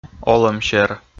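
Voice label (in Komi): "олӧмсер", Komi, male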